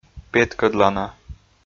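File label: "петкӧдлана", Komi, male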